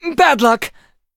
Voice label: hit, en; cookie run